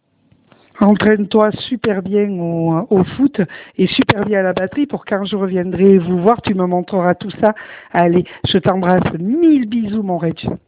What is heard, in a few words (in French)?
Message vocal